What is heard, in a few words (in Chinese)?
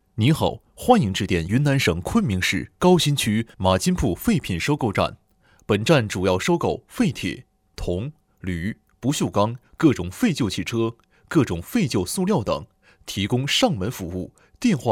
男 彩铃 沉稳